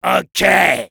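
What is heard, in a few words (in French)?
positive vocalization, French; Soldier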